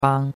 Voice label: "bang1", chinois; Cours de Chinois

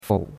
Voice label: "fou3", chinois; Cours de Chinois

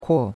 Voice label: "kuo4", chinois; Cours de Chinois

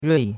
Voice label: "rui4", chinois; Cours-de-Chinois

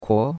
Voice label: "kuo2", chinois; Cours-de-Chinois

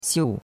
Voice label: "xiu4", chinois; Cours-de-Chinois

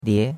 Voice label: "lie2", chinois; Cours-de-Chinois

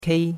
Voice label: "kei1", chinois; Cours de Chinois

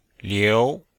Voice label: "liu2", chinois; Cours de Chinois

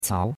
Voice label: "cao2", chinois; Cours-de-Chinois

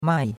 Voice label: "mai4", chinois; Cours-de-Chinois

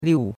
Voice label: "liu4", chinois; Cours de Chinois

